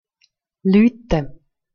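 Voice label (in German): "lueuete", Swiss German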